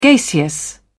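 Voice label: "gaseous", British English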